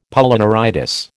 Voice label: "Polyneuritis", American

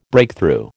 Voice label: "Breakthrough", American

